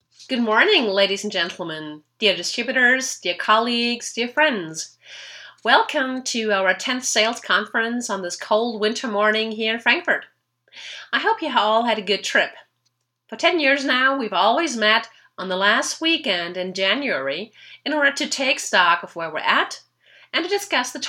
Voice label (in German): sprechprobe en